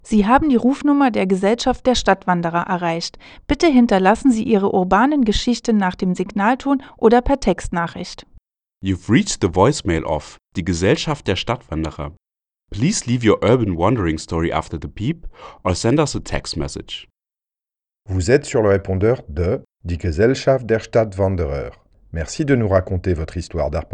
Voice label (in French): REPONDEUR MESSAGE VOCAL